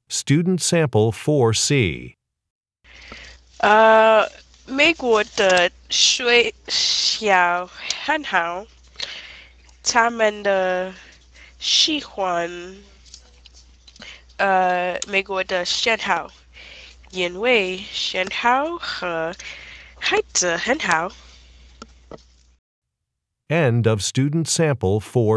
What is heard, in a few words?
chinese conversation